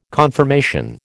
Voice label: "conformation", American